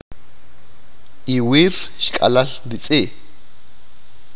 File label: yesterday the dog ran, achi